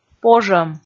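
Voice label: "пожӧм", Komi, female; kpv audio dict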